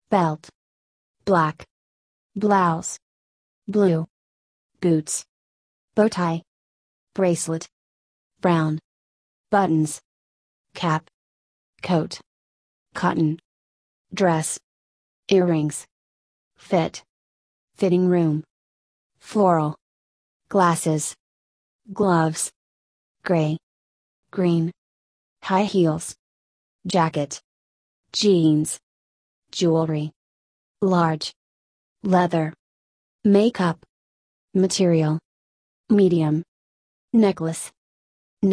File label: usa; clothing